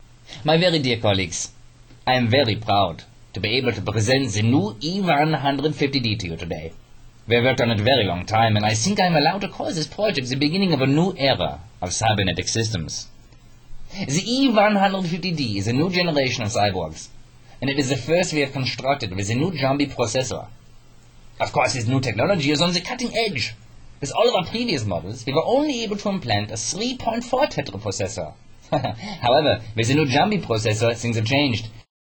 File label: mit accent, native english; the doll